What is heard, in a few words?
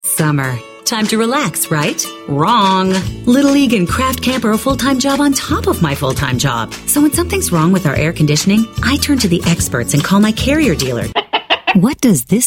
female commercial